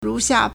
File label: "ru2 xia4", Chinese